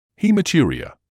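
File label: "haematuria", British English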